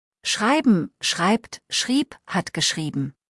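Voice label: "schreiben", German; verben kurzliste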